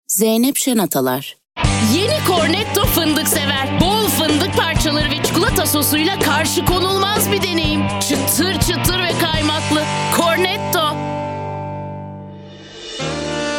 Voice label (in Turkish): TURKCE, turkce kadin